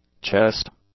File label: "chest", English; nouns